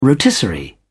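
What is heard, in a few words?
"rotisserie", British English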